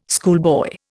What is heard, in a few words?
"schoolboy", Indian